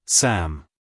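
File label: "sam", American English, male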